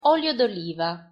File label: "olio d'oliva", Italian pronunciation